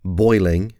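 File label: "boiling", English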